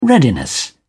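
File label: "readiness", British English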